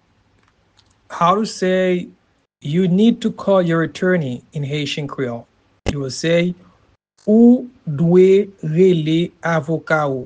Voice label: You need to call your attorney in Haitian Creole Ou dwe rele avoka ou pronunciation